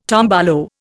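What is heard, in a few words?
"tomboy", Indian; Dictionary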